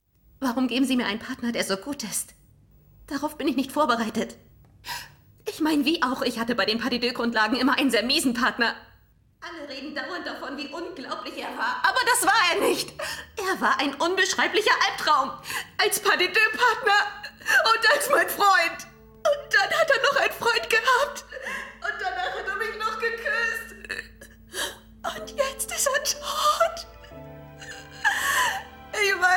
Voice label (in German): Synchron